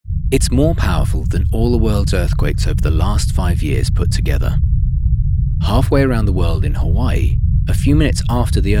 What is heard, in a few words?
Tsunami Documentary